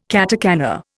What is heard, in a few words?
"katakana", Indian